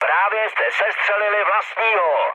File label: player killed ally, speech cze, mood med; War Thunder